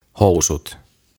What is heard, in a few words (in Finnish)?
"Housut" "Trousers"; OTAVA KONSERNI